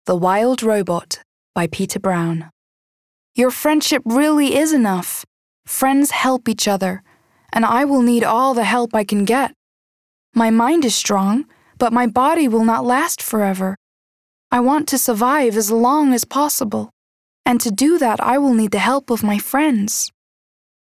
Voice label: Audiobook; Wildrobot